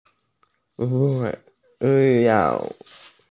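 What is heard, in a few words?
"school", Mandarin; xue2xiao4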